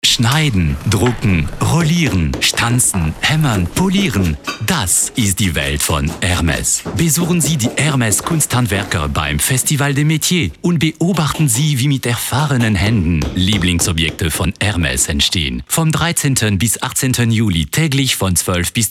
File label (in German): Akzent Franzoesisch, voices